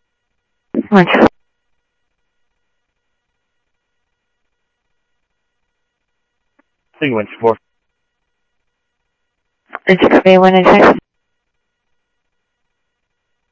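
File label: Sheriff East County, scanner audio trunk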